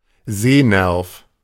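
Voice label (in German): "Sehnerv", German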